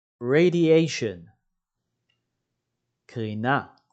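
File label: "radiation" English, "קרינה" Hebrew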